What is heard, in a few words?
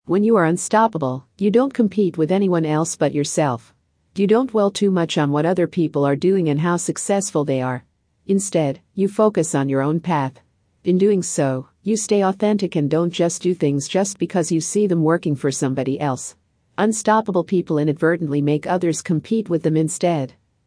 Female; Unstoppable